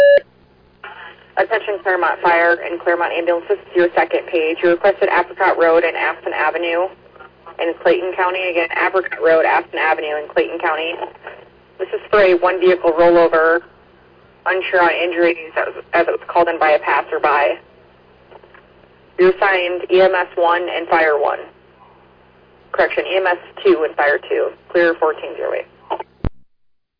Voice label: Fire, dispatch; Clermont